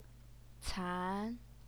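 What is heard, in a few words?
"can", Mandarin Chinese, second tone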